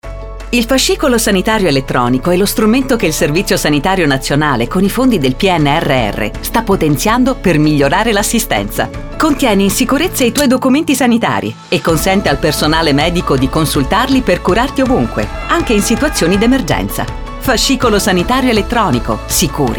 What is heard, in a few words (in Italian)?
spot radio; min salute; fse